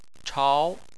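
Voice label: "chao" second tone, Chinese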